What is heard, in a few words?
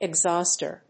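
"EXHAUSTER", English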